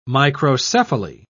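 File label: "microcephaly", British English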